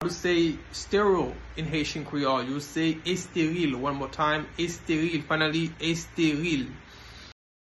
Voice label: Esteril pronunciation by a Haitian teacher, Haitian Creole